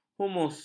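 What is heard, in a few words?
"humus", Malay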